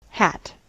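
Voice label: "hat", US English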